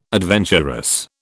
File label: "adventurous", British